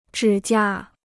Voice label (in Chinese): "指甲", Chinese